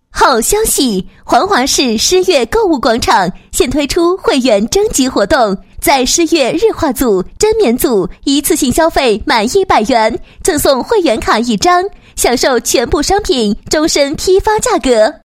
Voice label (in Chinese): 女 好消息 广告词